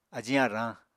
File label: "ajiñ arañ", Apatani